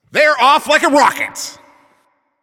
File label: Game Start, Announcer